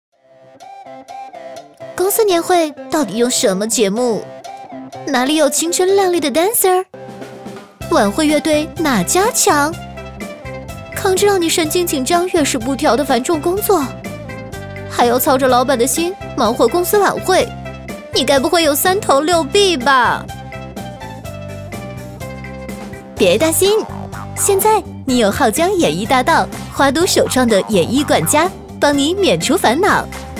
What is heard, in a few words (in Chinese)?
女 飞碟说; 演艺公司